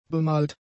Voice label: "bemalt", German; adjectives

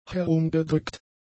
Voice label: "herumgedrückt", German; adjectives

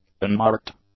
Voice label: "unmarked", English; adjectives

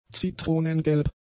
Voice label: "zitronengelb", German; adjectives

